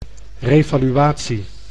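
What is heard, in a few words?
"revaluatie", Dutch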